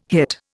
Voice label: "hit", Indian